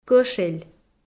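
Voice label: "кӧшель", Komi, female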